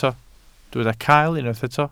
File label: "cael", Welsh